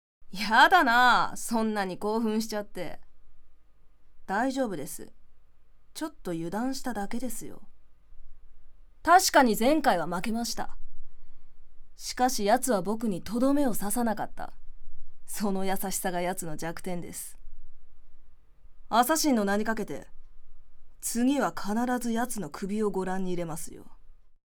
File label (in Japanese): キャラクター少年クール